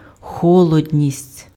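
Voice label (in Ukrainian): "холодність", Ukrainian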